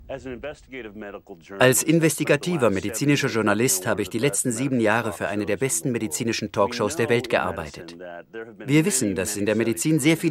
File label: male german dubbing film documentary